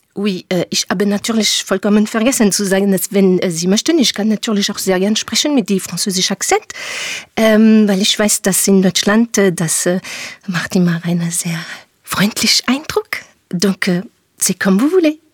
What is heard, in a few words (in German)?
franz. Akzent